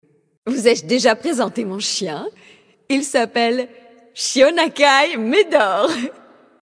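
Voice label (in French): Female Blague, voix françaises; Draenei Sancteforge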